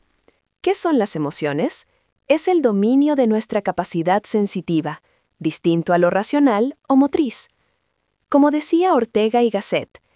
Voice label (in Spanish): locucion